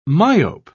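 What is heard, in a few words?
"myope", British English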